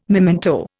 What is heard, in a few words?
"memento", Indian